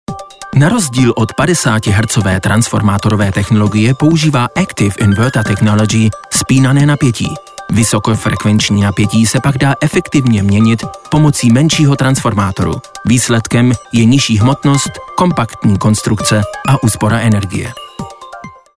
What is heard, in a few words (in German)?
E-Learning CZ, Sprecher-Tschechisch; E-Learning Chadim